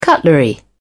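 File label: "cutlery", British English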